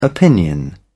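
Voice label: "opinion", British English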